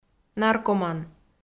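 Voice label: "наркоман", Komi, female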